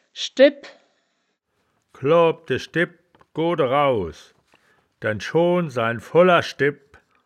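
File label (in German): "schdebp", wittlicher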